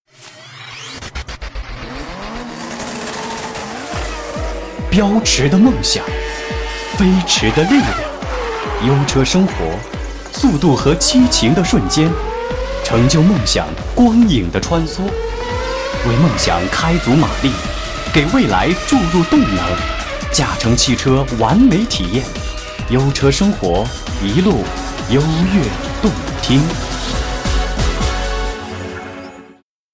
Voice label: 节目片头

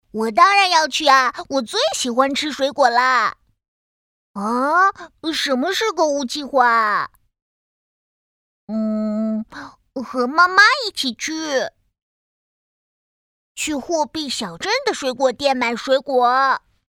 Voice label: 男童; 熊猫图图

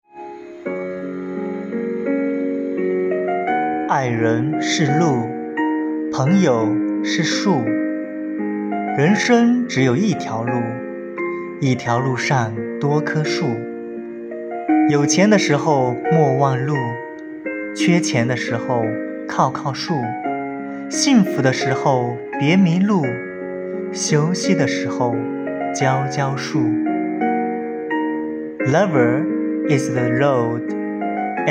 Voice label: 中英文朗读; 爱人是路朋友是树

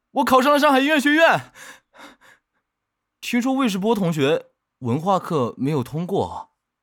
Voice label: 学生

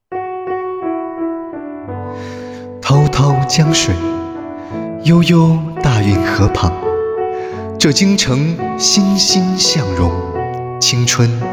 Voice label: 念白

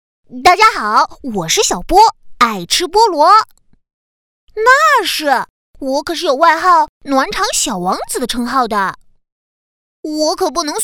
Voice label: 男童调皮 宠物; 小波

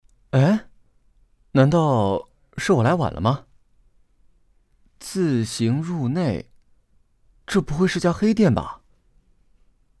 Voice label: 角色 多角色 大学生 掌柜 伙计 老太监 丈夫

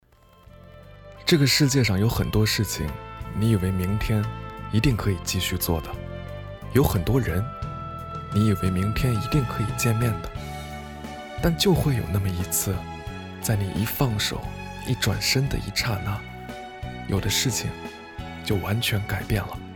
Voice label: 自媒体配音 温柔 情感

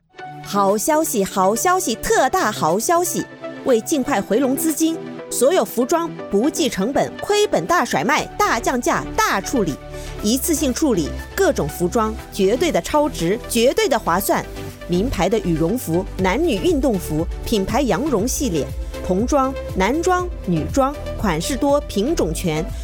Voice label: 产品促销广告

